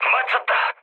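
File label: Korean aircraft destroyed mood low; speech; War Thunder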